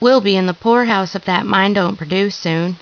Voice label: follow, woman

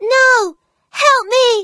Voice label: fem no help me; epstein

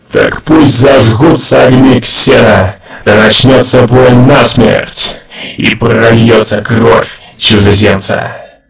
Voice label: announcer

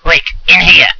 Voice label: old man

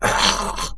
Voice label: hurt

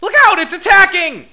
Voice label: fear

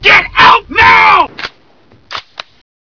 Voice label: mad guy

